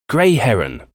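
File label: "grey heron", English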